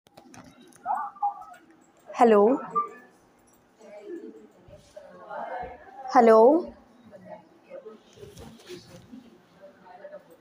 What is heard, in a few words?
call recording